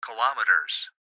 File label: Gps Voice, Male; Kilometers; Recorded Voice